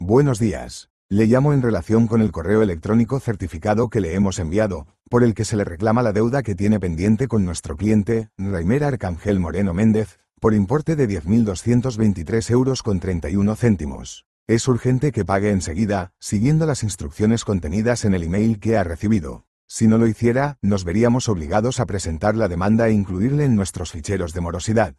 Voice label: audio call